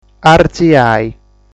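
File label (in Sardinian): "Artziai", Sardinian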